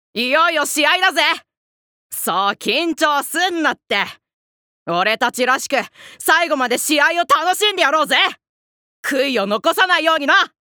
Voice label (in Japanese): アニメ